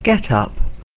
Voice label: "get up", English; Lesson30